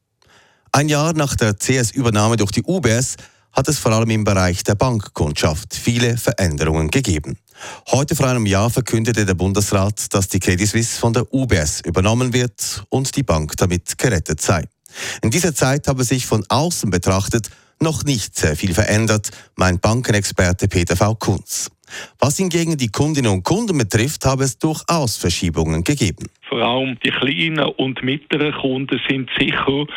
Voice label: Radio News ger